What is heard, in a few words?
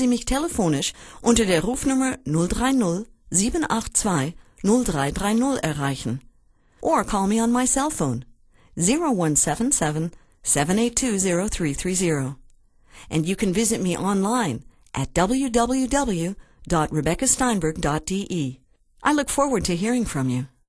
intro hello, native english